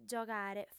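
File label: "giogare", female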